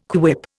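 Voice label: "quip", Indian English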